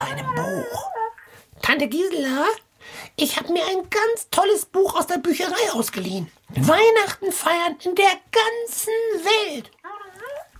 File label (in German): hoerspiel